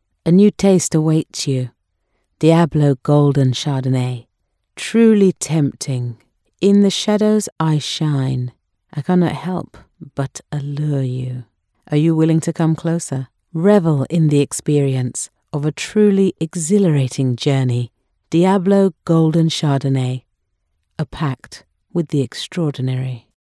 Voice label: Sensual Pact Commercial Devil; Diablo